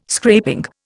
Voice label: "scraping", Indian